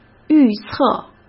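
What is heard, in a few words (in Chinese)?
"预测", Mandarin Chinese; hsk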